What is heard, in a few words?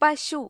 "cow", ml; gcompris